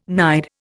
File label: "nide", Indian